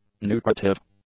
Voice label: "nutritive", english; adjectives